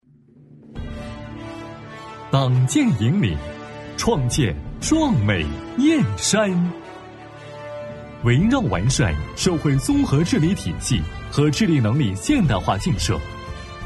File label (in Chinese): 男 汇报; 党建引领创建壮美雁山